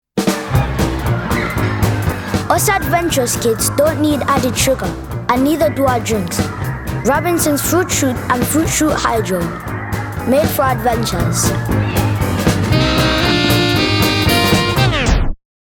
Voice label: Fruit Shoots, Zambian